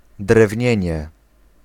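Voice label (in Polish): "drewnienie", Polish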